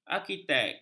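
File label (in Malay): "arkitek", Malay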